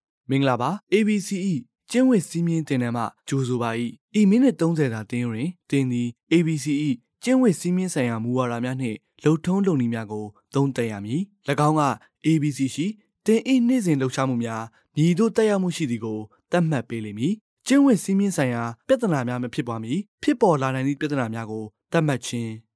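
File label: Burmese, Myanmar, male, voice over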